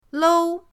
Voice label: "lou1", pinyin